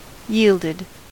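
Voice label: "yielded", US English; pronunciations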